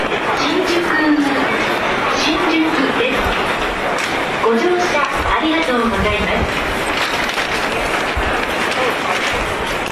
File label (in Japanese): 駅名連呼（ATOS版）, しんじゅく〜新宿です。; 埼京線; 渋谷・大崎方面